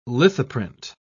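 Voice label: "lithoprint", British English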